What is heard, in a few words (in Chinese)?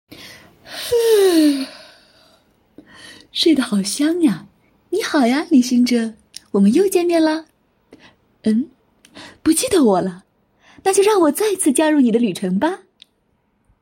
温柔少年音